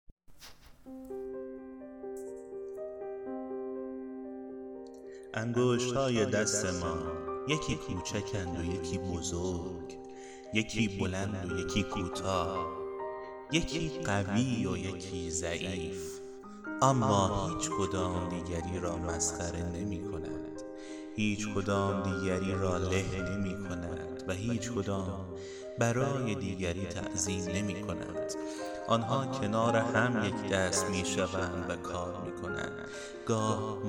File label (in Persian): پادکست صوتی